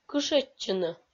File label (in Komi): "кышӧдчыны" Komi